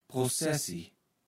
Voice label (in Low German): "Prossessie", Platt